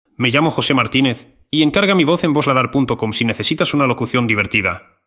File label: Spanish; spanish voiceover artist